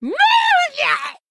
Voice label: female death; Chillmere